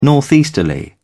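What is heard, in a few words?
"northeasterly", British English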